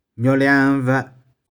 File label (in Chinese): "nioh4liang3veh4", 玉環